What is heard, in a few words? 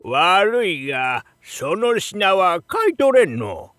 shop oldman, voice